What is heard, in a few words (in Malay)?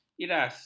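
"iras", Malay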